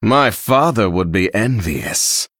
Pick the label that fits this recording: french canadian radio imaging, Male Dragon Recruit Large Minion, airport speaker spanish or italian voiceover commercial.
Male Dragon Recruit Large Minion